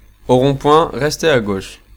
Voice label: French male